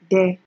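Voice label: "de", Nigerian Pidgin, female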